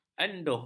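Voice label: "anduh", Malay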